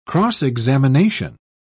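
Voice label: "cross-examination", British English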